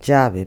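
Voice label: "llave", Spanish, Santiago de Compostela; Galicien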